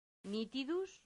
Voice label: "nitidus", latin; santillana